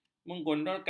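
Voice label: "menggondolkan", Malay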